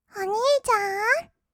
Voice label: "おにいちゃん？", kawaii voice; ASMR; 二人称; 1200 kawaii voice!!

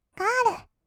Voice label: ガール, kawaii voice; 音ゲー

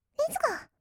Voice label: "レッツゴー", kawaii voice; ASMR; 1200 kawaii voice!!; 音ゲー

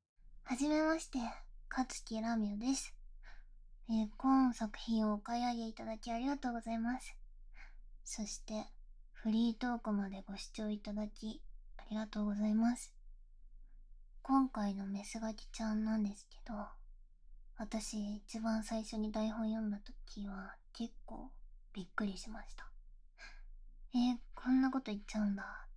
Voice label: フリートーク

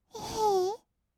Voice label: "えへー", kawaii voice; ASMR; 色々なワンショット; 1200 kawaii voice!!

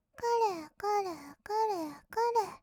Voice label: くるくる, kawaii voice; 合いの手; 1200 kawaii voice!!; ASMR

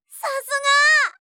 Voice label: さすが！, 相づち・反応系【ポジティブ】, ASMR

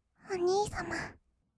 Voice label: お兄様, kawaii voice; ASMR; 二人称

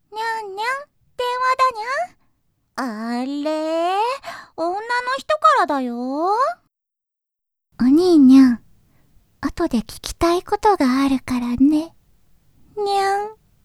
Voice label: 電話 女の人

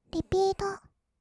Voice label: "リピート", kawaii voice; ASMR; 1200 kawaii voice!!; 音ゲー

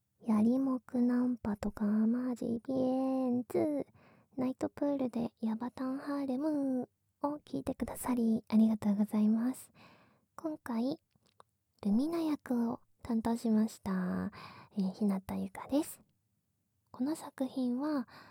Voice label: フリートーク, ASMR

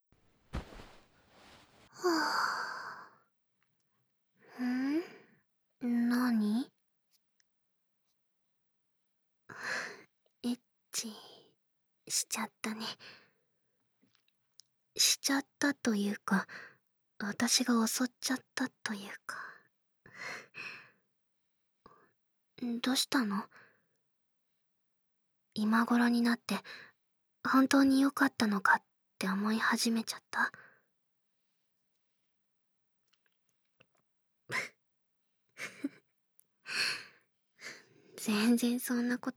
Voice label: ピロートーク; ASMR

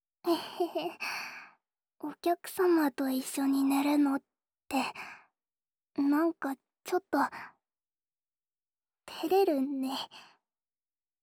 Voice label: 添い寝 左, ASMR

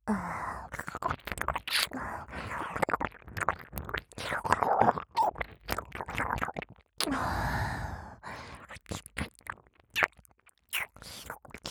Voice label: アナル舐め媚薬注入手コキ罵倒オプション 右 耳舐め, ASMR

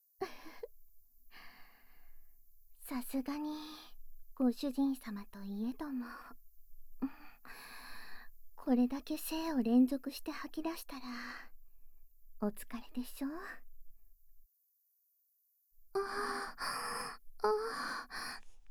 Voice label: 添い寝しながらまったり射精 ～甘い吐息・囁き・寝かしつけ～（効果音あり）

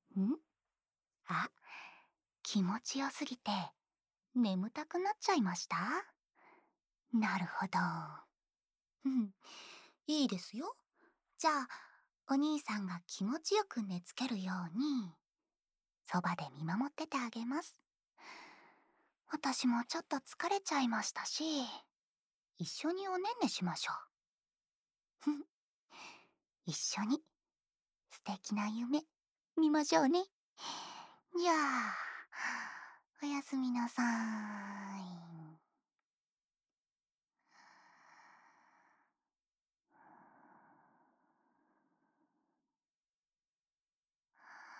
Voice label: 安眠用添い寝ループ（右耳）, ASMR

